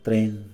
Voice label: "tren", Mixe, hombre; Moderno

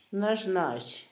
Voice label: virgen, Zapoteco, Asunción Ocotlan; Humano; INALI

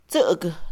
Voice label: miedo, Mixe, Mujer; Emociones; INALI

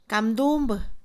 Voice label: campesino, Mixe, Mujer; INALI

